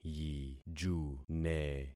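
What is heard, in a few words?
"yijune", concatenated syllables; Affixing experiment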